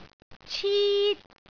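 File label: "cit1", Cantonese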